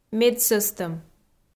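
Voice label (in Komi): "медсӧстӧм", Komi, female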